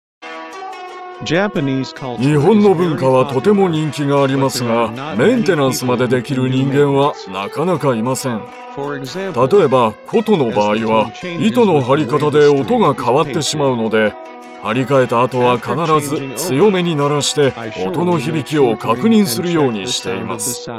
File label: vo old